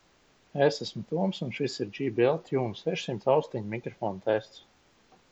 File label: jbl tune600 mic